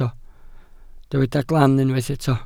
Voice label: "glan", Welsh